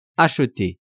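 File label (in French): "acheter", French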